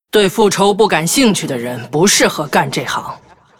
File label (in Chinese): Hero female demon hunter pissed